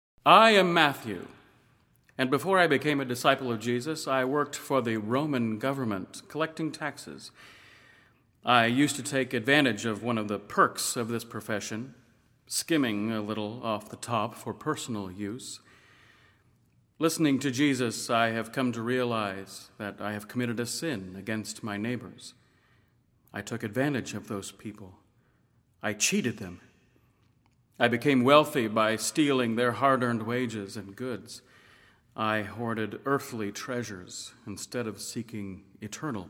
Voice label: Monologue; Matthew; living last supper